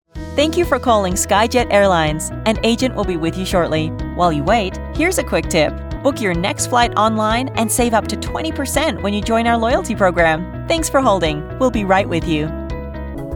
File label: Telephony